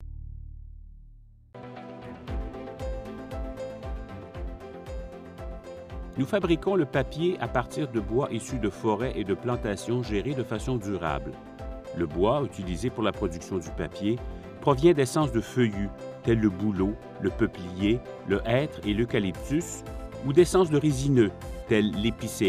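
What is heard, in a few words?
Canadian French Corporate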